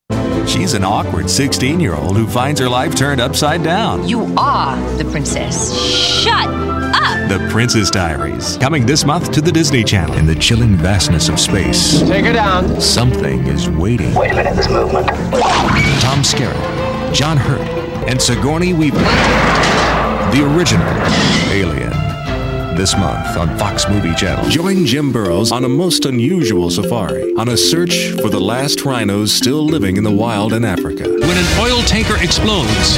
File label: Male, promos